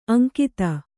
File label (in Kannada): "ಅಂಗತ", Kannada